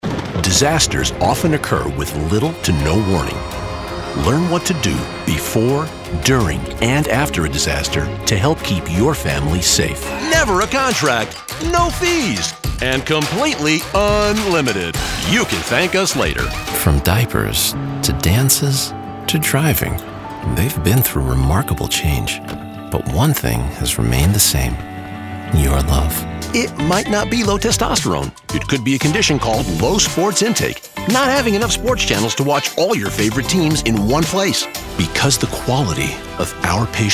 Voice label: commercial